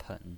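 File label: "pen" third tone, pinyin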